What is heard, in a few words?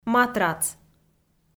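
"матрац", Komi, female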